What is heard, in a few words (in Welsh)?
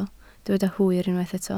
"hwyr" Welsh